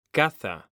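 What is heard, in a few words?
"CAZA", Spanish, male; mekanta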